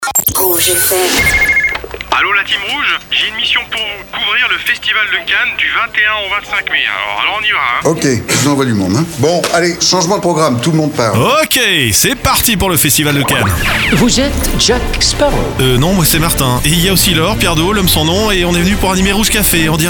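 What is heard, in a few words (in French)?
spot, spots radio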